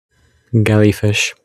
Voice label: voice message